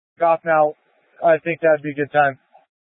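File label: Incident Tac 3, Scanner audio recording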